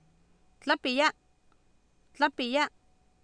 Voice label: "tlApiyA" Nahuatl, Oapan, female; Oapan minimal pairs 2000